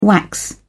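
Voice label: "wax", British English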